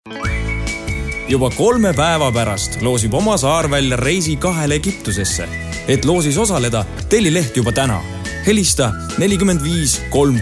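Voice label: estonian male commercial; voice demos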